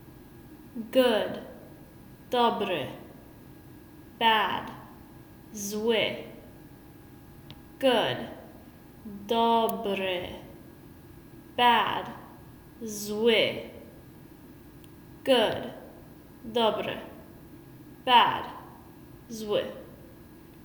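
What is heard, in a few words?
Good Bad, Polish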